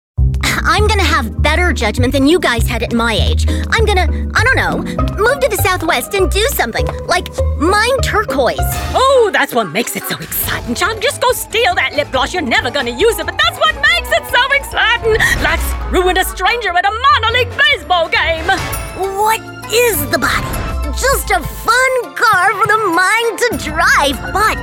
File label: voiceover, animation